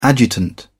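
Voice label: "adjutant", British English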